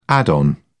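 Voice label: "add-on", English, British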